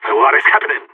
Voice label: scared emote; Counter Strike; CS:GO; phoenix